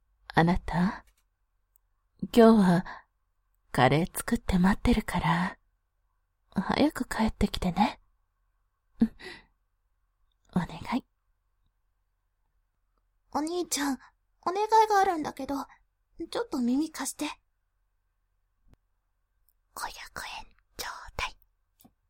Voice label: binaural

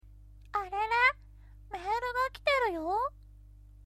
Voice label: male

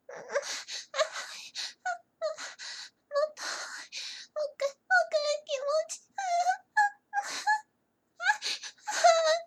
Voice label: adult

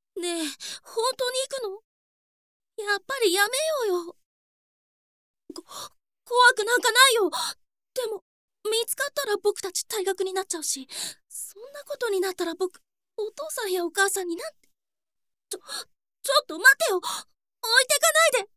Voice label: boy

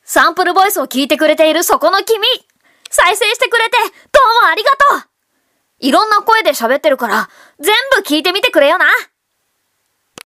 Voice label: boy

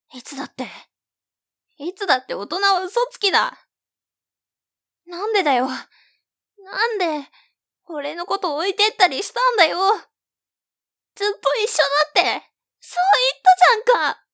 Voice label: boy

